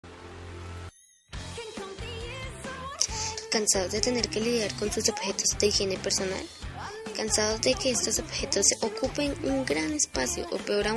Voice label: cuña radial; practidispense

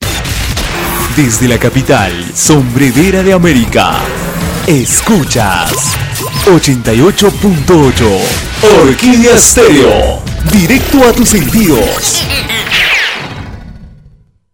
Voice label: spot; orquidea stereo

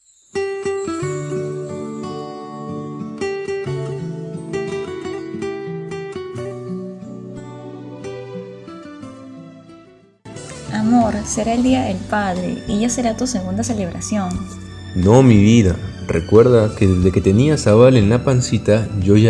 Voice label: publicidad

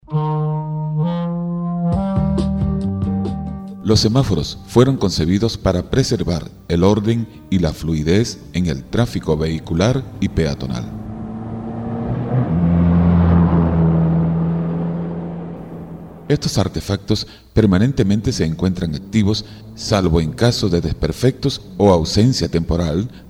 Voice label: MICRO INSTITUCIONAL; el semaforo

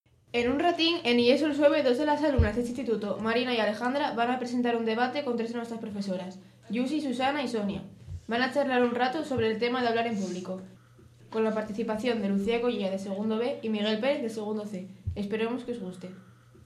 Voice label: publicidad debate

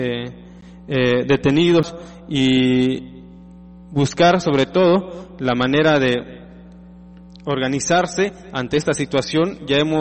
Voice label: locutor de radio planton; zanatepec

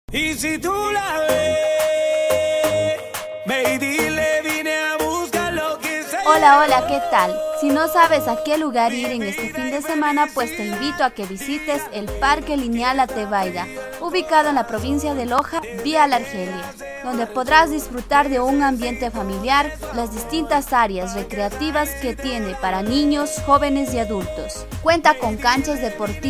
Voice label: publicidad

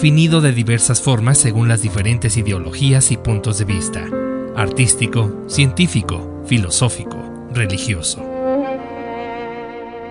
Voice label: locutor emotivo definicion de amor

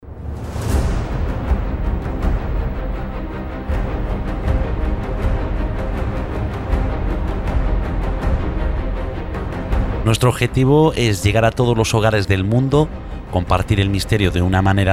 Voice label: cuña promocional; la cuarta esfera

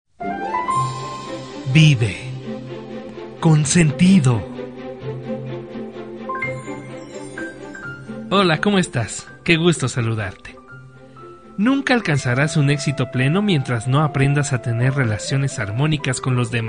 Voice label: locutor emotivo; vive con sentido

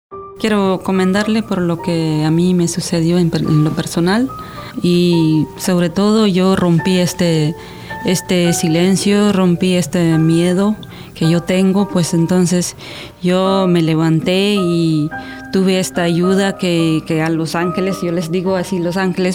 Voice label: campaña testimonios indigena

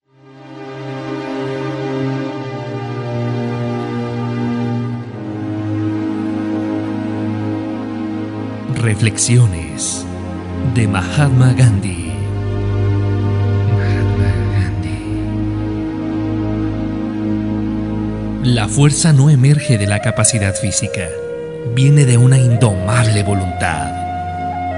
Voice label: locutor emotivo; mahatma gandhi

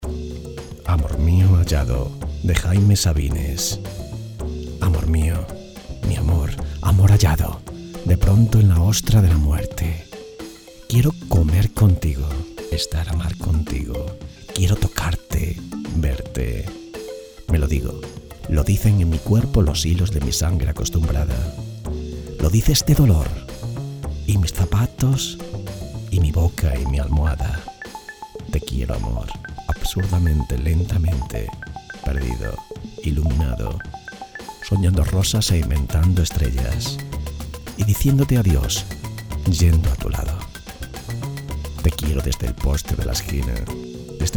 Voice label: poema romantico; jaime sabines; amor mio

